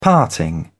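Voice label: "parting", British English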